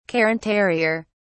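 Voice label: "cairn terrier", English, British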